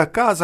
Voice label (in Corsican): "casa", Celavu (sud); Lieux